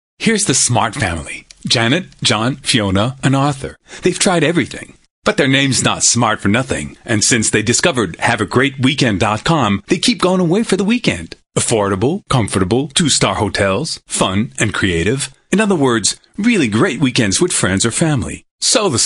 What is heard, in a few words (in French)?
US énergique humour voix off voice over; Smart